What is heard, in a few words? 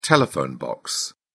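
"telephone box", British English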